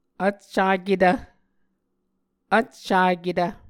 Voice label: "vccaketv", Muscogee; Mvskoke Words with Repeated Consonants; Martin, Jack B., and Margaret McKane Mauldin. 2023. A Dictionary of Creek/Muskogee